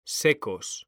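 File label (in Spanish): "secos", male; mekanta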